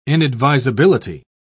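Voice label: "inadvisability", British English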